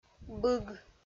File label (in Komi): "быг" kpv f